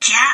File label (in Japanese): "きゃ", Japanese